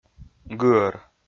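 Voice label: "гыӧр", Komi, male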